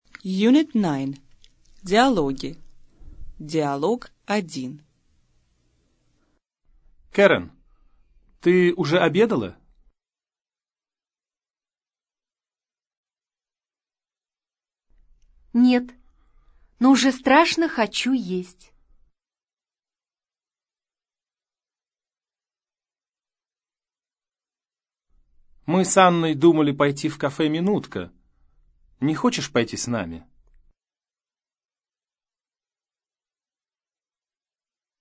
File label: dialog, russian